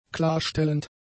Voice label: "klarstellend", German; adjectives